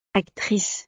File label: "actrice", francés; profesiones